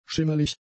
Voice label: "schimmelig", German; adjectives

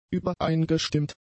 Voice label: "übereingestimmt", German; adjectives

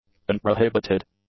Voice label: "unprohibited", english; adjectives

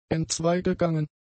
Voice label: "entzweigegangen", German; adjectives

